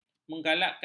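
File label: "menggalakkan", Malay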